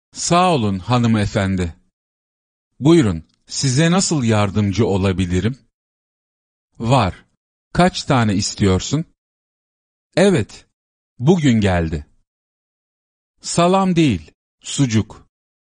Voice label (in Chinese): 土耳其语男 外语 小语种 样音